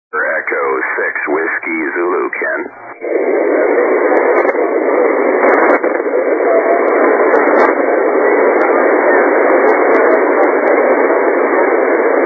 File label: 80m, SSB very late in the season.; FT-1000d